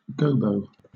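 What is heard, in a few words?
"gobo", British English; pronunciations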